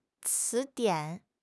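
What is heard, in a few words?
"ci2 dian3", Mandarin Chinese; HSK3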